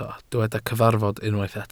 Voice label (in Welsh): "cyfarfod" Welsh